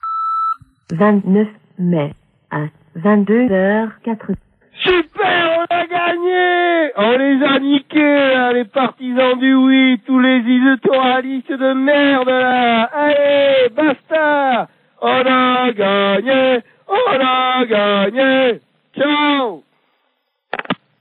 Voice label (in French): repondeur; Victoire du Non